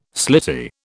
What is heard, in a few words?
"slitty", British